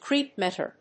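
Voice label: "creepmeter", English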